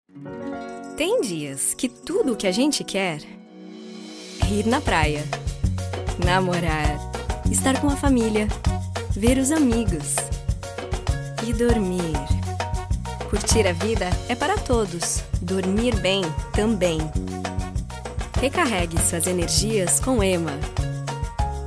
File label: female brazilian portuguese mattress online and tv commercial